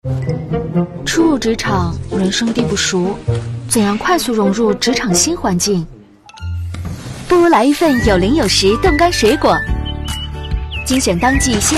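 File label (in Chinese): 广告自然清新冻干水果; 有零有食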